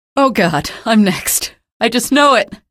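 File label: citizen buddy killed, female; source engine; episodic; episode 1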